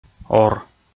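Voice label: "ор", Komi, male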